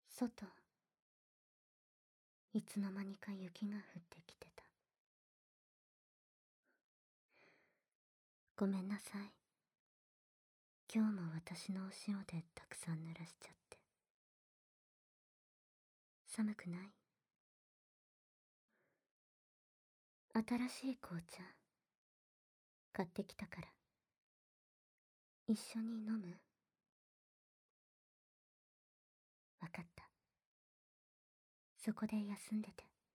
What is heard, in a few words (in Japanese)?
濃厚耳舐め＆全身ディープキスでイキまくりご奉仕ピロートーク, ASMR; SEcut